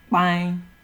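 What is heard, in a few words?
"kpain", Nigerian Pidgin, male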